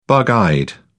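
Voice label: "bug eyed", British English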